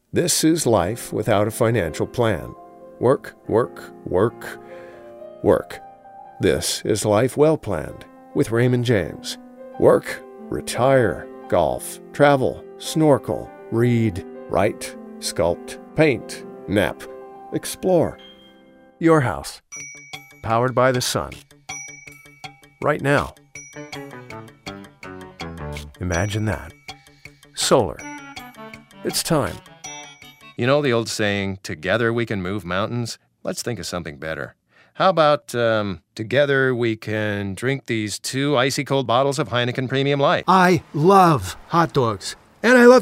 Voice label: Commercial